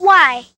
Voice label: "why", girl

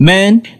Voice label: "man", g-rated swears

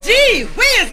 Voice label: "gee whiz", g-rated swears; Voice; expletives

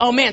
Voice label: "oh man", expletives, g-rated swears

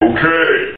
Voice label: "okay", expletives

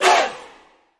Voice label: "huh" scratch; Voice; ooh ahh

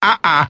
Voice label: "uh uh" cartoon, Voice; ooh ahh; uh um

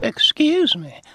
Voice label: "excuse me" old man, Voice; expletives

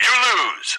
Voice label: you lose announcer

